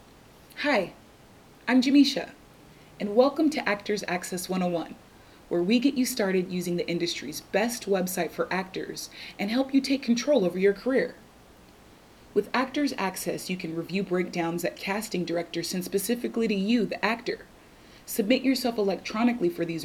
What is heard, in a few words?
english usa female